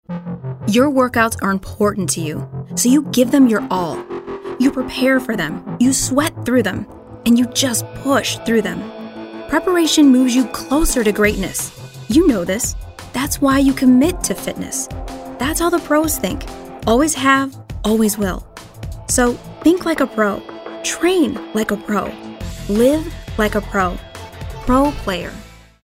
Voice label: motivational

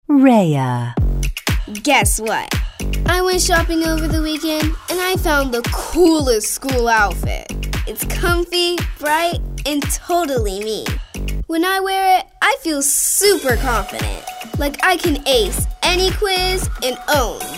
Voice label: kids

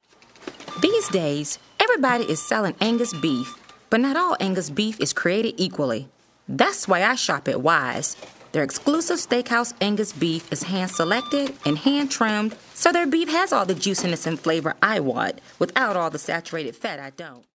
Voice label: attitude

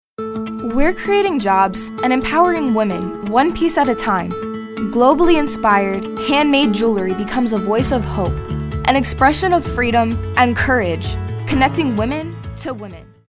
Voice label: narrative

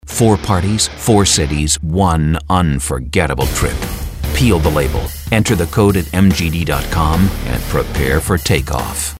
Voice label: attitude sophisticated high energy

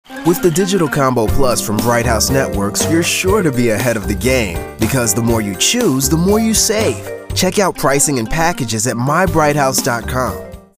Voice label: friendly genuine

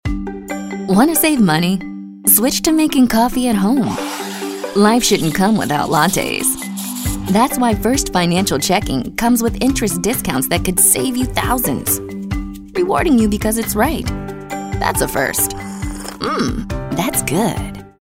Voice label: girl next door

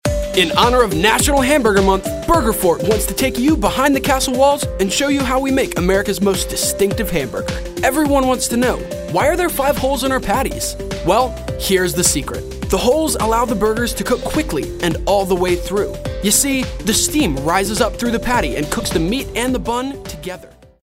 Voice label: upbeat

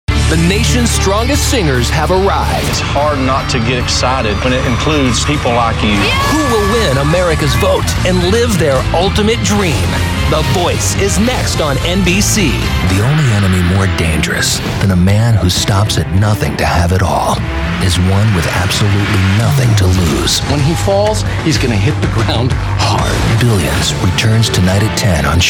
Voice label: Promos

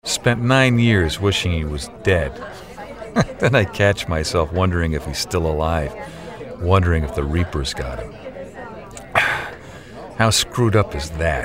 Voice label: tough and gritty

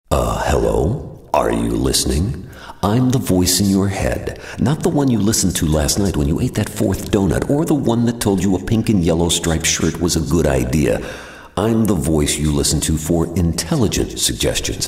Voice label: tough and gritty

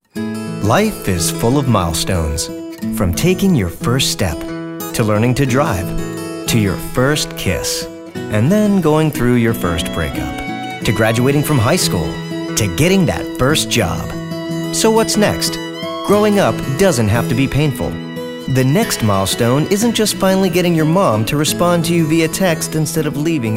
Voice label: Caring

